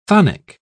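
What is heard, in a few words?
"chthonic", British English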